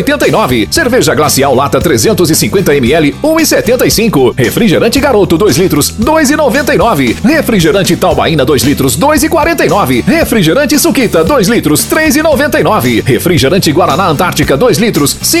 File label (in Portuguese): Spot Alvorada SuperMercado; spots